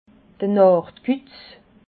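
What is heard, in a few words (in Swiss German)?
"nachtkutz", Alsatian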